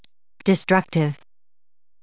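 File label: "destructive", US English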